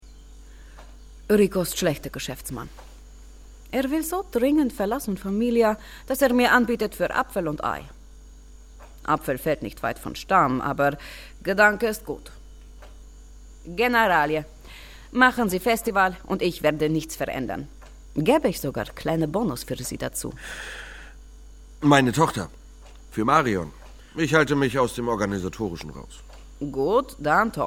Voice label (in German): poln. Akzent; Gummistiefel